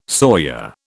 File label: "sawyer", British